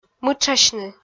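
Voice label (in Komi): "мытшасьны", Komi, female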